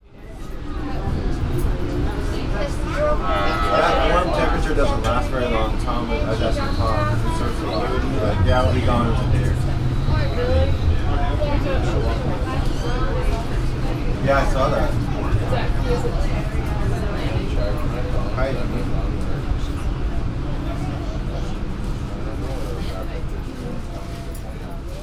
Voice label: Conversation; City College